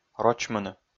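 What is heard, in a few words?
"рочмыны", Komi, male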